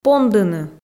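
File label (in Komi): "пондыны", Komi, female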